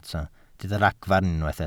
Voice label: "ragfarn", Welsh